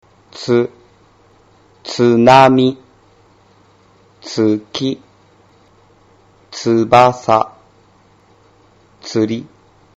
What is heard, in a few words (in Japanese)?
tsu examples, Japanese pronunciation